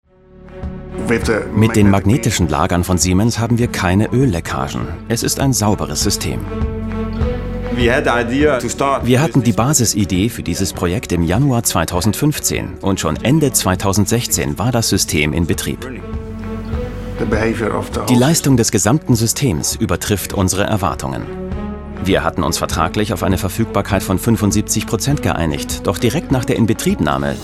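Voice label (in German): commentary, Neutral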